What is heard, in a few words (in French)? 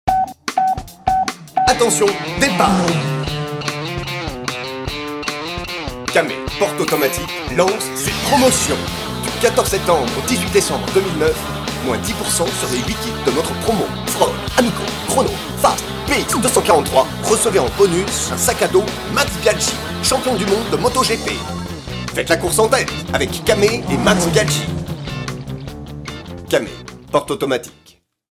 institutionnel; came